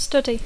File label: "study", English